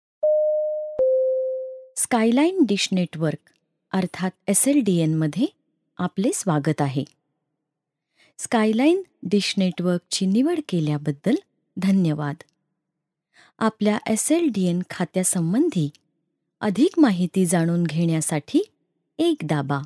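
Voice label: Marathi Female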